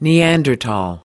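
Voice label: "neanderthal", US English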